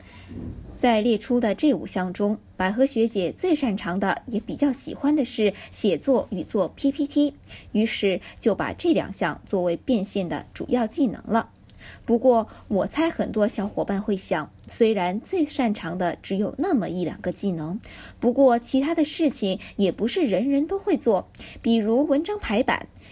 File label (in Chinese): 有声读物; 理财技能挖掘